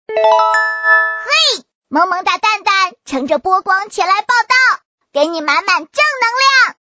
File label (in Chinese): 动画 游戏 游戏音超能蛋主流男童; 讯飞游戏音超能蛋主流男童